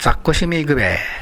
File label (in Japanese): "zakko", minami yamagata